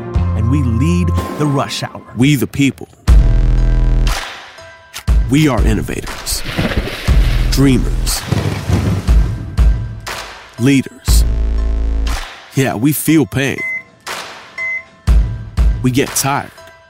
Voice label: College University Urban African American Sound Motivational and Inspiring Sports; Athlete